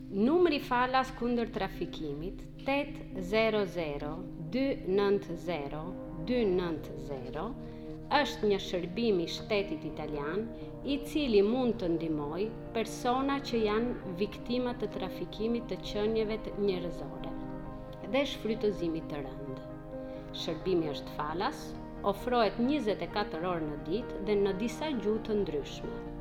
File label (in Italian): Registrazione Albanese